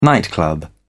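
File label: "nightclub", English, British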